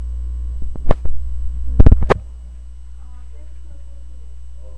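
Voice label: "clock", spoken word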